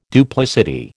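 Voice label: "duplicity", American English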